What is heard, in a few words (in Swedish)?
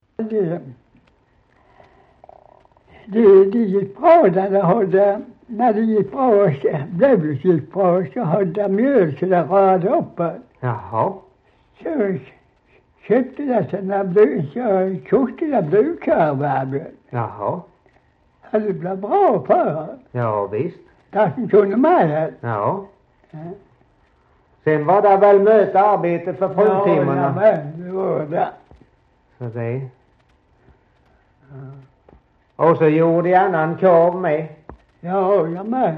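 Slättåkra Halland, dialekter; Folkminnen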